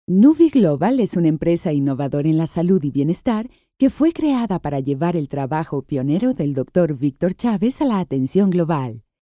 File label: Spanish Corporate